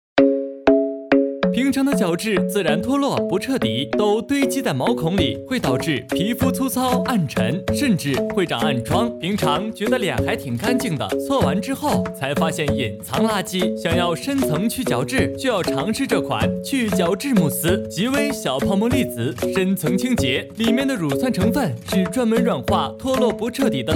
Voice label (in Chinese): 自媒体 去角质慕斯